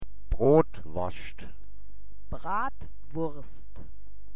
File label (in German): brotworscht, mundart; woerterbuch